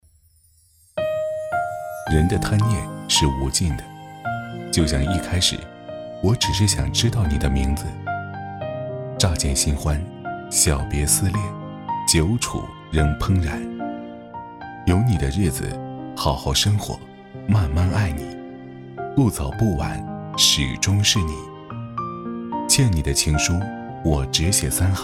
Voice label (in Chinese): 暖心旁白 三行情书